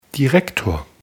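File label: "direktor", German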